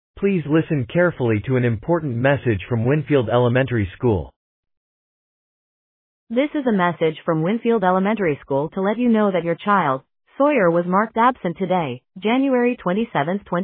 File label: Voicemail